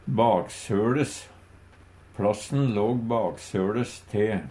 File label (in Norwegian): "baksøLes", Numedalsmål; Plassen låg baksøles te; Adjektiv